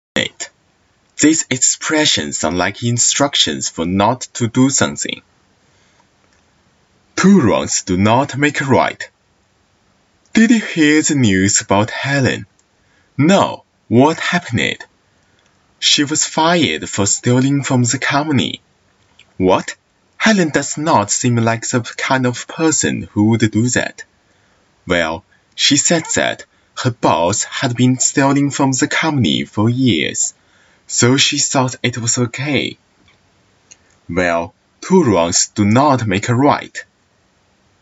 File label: English